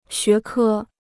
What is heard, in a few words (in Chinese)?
"学科", Chinese